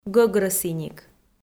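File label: "гӧгрӧсіник", Komi, female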